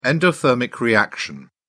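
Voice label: "endothermic reaction", British English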